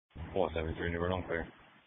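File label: Police Patrol (Dispatch)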